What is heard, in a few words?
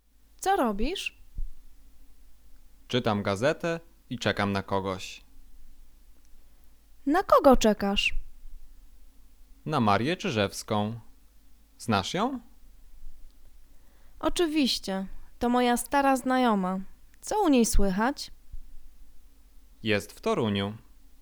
conversation; lektorek; firstyear